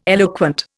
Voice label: "eloquent", Indian